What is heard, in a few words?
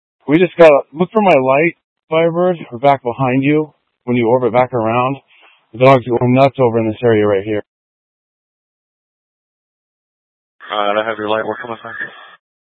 Mutual Aid-Intersystem Interop B, scanner